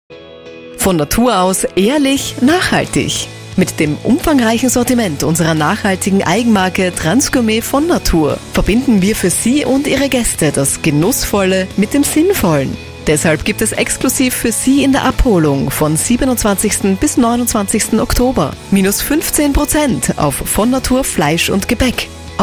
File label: Spot; Vonatur